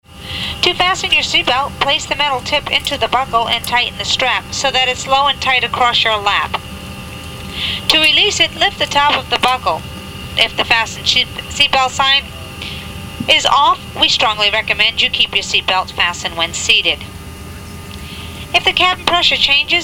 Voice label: safety instructions english